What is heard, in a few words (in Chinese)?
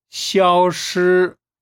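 "消失", Chinese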